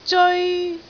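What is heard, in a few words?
"zeoi1", Cantonese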